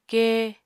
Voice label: "kee", Apatani